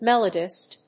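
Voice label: "melodist", English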